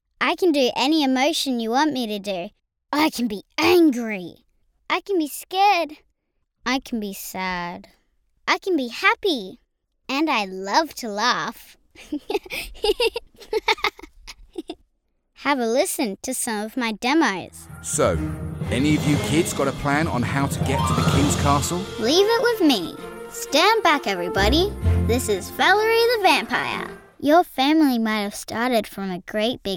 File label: 11 year old girl